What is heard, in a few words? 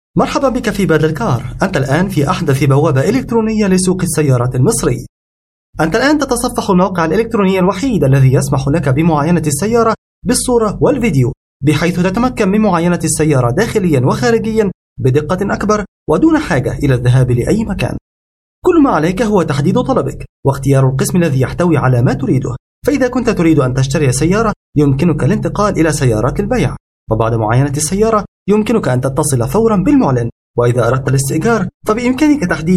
Arabic Male